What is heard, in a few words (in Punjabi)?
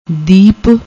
"deep", Punjabi